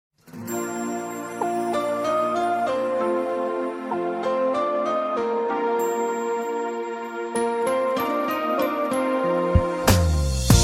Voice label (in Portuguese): Aniv. de 15 Anos M Nós